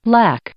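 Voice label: "lack", English, female; verb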